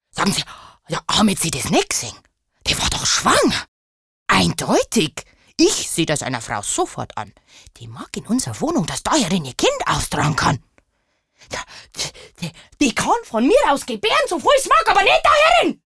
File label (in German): Dialekt Bayerisch, voices